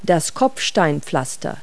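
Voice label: "cobblestone", English word; Multi-Lingual Talking Picture Dictionary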